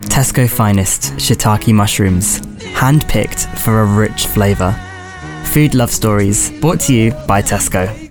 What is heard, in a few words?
male english commercial; tesco food love stories